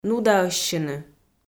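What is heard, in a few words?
"нудаӧссьыны", Komi-Zyrian, female; audio dict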